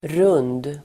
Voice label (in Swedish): "rund", Swedish